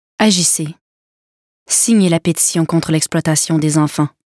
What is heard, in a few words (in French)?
Enfants